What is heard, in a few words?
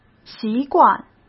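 "习惯", Mandarin Chinese; chinese-flashcards; hsk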